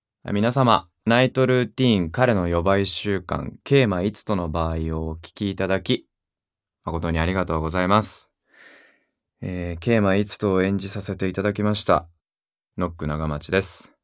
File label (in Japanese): フリートーク